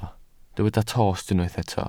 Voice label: "tost", Welsh